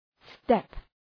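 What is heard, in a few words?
"step", English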